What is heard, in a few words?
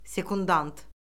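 "sekundant", Azerbaijani; Orfoqrafiya Lüğəti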